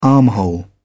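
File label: "armhole", British English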